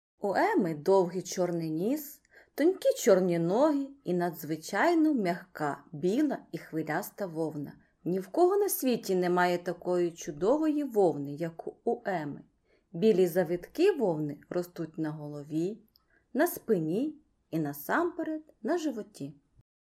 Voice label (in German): ukrainisch